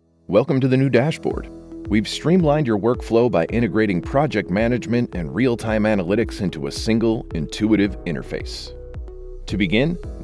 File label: Corporate Narration